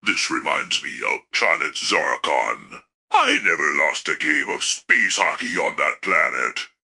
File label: happy, speech; fertig classic games